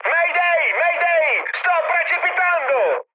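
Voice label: Italian aircraft destroyed mood high, speech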